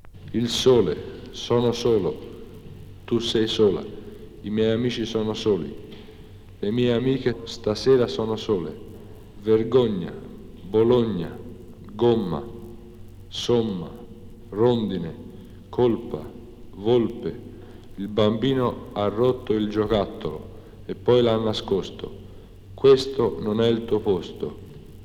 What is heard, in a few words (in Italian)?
O chiuso Firenze